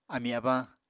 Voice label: "amí-abañ", Apatani